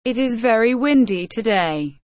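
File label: "It is very windy today", English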